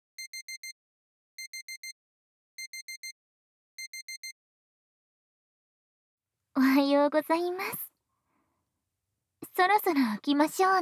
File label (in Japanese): 目覚まし ボイス; さやか先生; 公立 大人の保育園～ゆるおほ組～; KU100